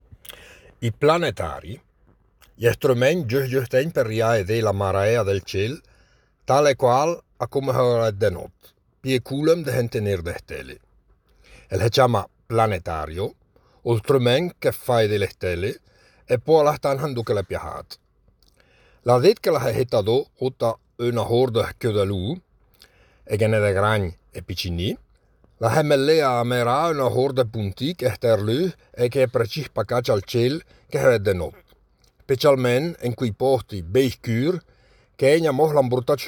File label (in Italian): dialetto lumezzanese; Planetario